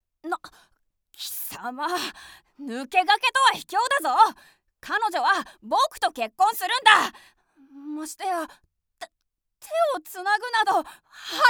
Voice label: cheerful boy

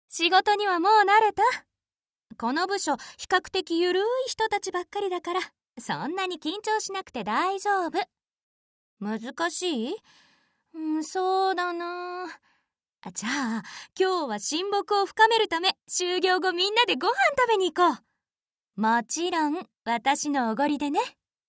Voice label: Friendly senior office lady

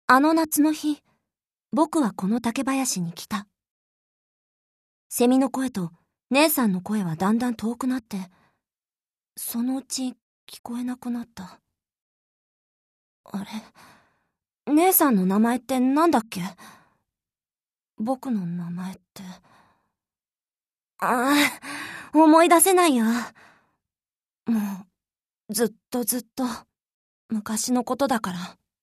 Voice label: boy

